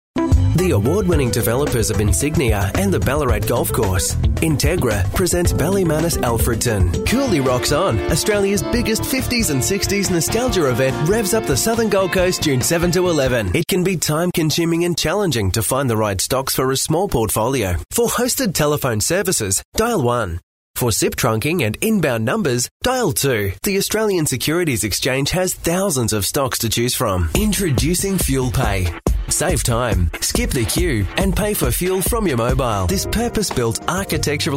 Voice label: young aussie showreel